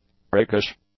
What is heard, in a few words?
"priggish", english; adjectives